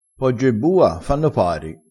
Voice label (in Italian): "poggio e bua", crespinese